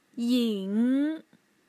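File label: "ying", Mandarin Chinese, tone 3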